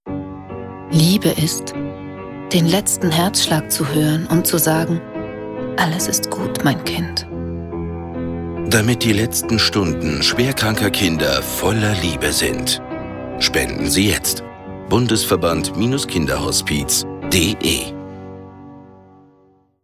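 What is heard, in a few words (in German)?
Spots, Männer; Bundesverband Kinderhospiz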